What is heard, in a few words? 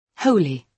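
"wholly", British English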